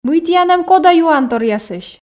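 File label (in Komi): мый тіян эм кода юанторъясысь, Komi, female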